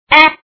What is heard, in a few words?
"an" sound